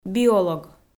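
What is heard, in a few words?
"биолог", Komi-Zyrian, female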